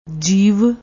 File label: "jeev", Punjabi